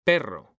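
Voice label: "perro", Spanish, male; mekanta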